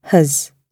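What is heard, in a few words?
"has", British English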